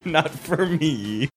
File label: laughing not for me